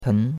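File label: "pen2" Mandarin Chinese syllable, second tone; Cours-de-Chinois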